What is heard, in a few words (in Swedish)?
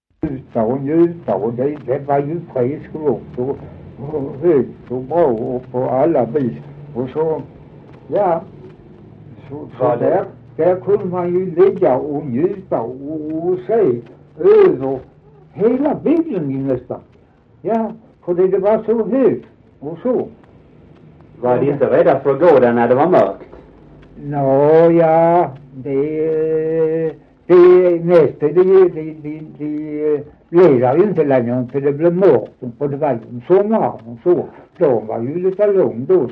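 Dalby Skåne, dialekter; Folkminnen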